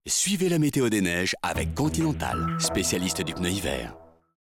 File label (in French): Continental pneu hiver, Billboard, annonce programme